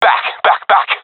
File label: request fallback, professional epic; vo; Source Model Packs; Counter Strike Model Pack; CS2